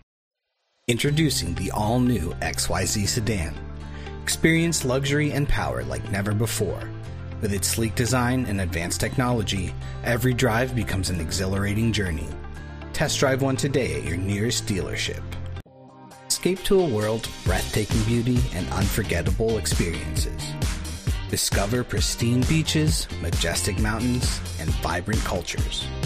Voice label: Commercial Sampler